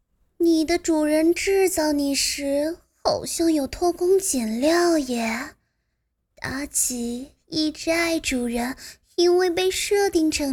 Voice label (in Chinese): 元气少女; 妲己